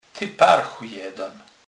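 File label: "parch", Polish, Kurpie dialect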